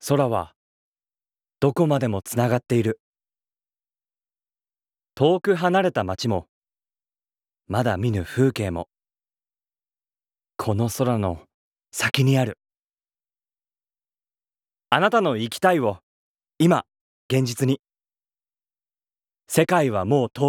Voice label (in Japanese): ナレーション